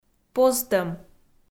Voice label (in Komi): "позтӧм", Komi